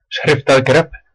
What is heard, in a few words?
write, west flemish